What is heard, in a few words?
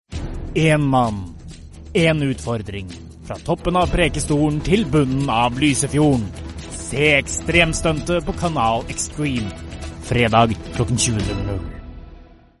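Norwegian, male, commercial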